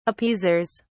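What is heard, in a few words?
"appeasers", British English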